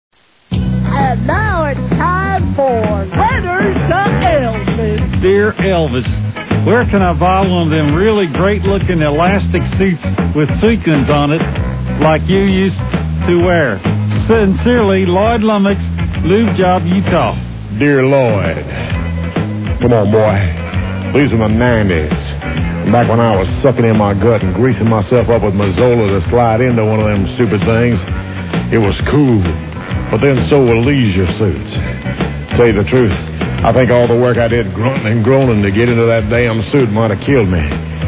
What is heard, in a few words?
Commercials; Letters to Elvis; Freekin Weird Audio